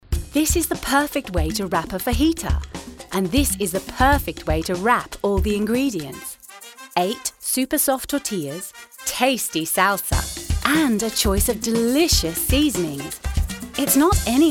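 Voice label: Commercial RP; Fajita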